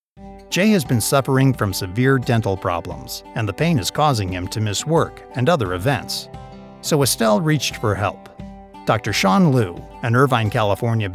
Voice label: Promotional